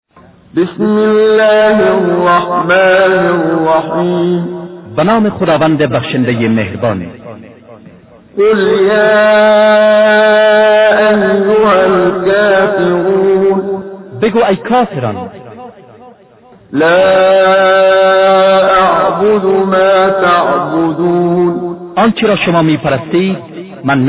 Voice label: Surah Farsi; Menshay Translation